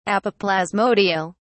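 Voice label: "apoplasmodial", British English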